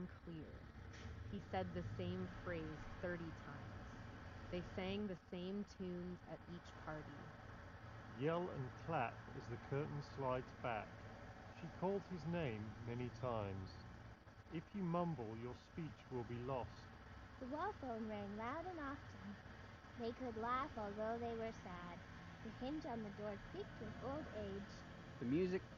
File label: Beats Studio Pro Street microphone sample